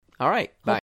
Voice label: man "bye"